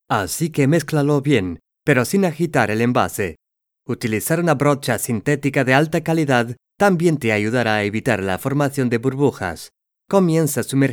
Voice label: European Spanish Sample

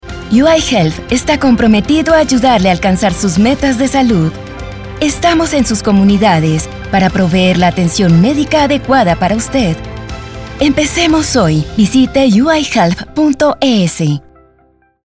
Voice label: Spanish Radio

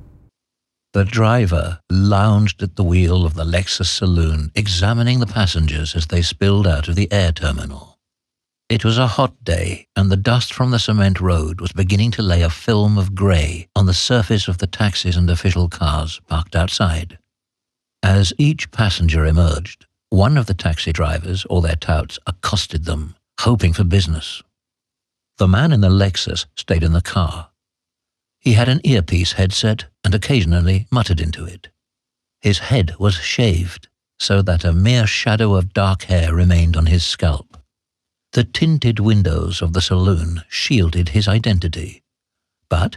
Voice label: Audio Book Kazak

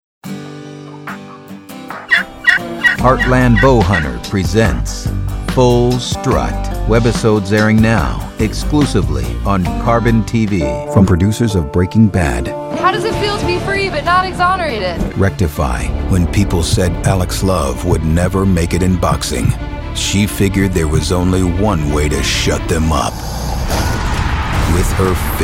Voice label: Promo

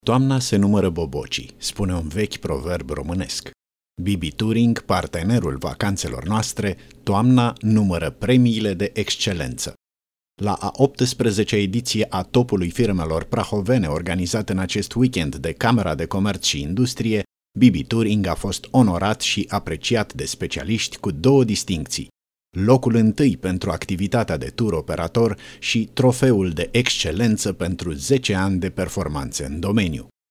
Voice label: Podcast

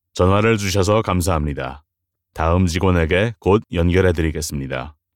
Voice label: Korean phone

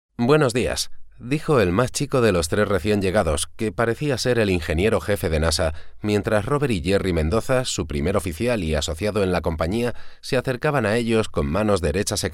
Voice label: Audiobook Spanish

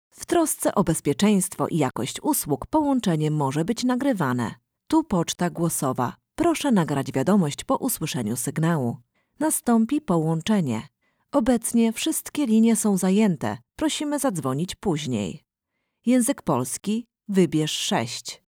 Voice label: Polish IVR

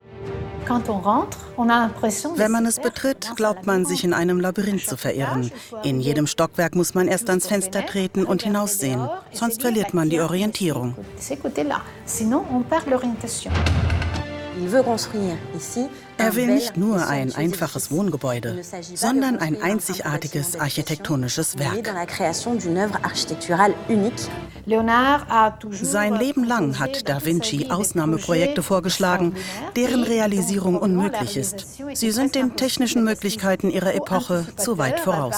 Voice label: doku overvoice

